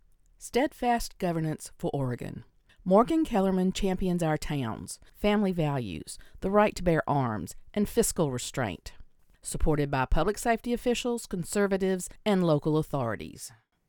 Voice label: Political Ad